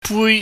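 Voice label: "bui", Cantonese, high level tone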